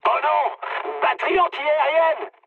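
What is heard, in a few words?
damaged aa, mood high, French; War Thunder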